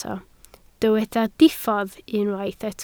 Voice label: "diffodd", Welsh